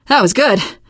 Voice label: nice job, teengirl; survivor voice